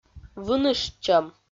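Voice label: "выныштчӧм", Komi, female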